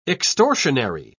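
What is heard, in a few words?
"extortionary", British English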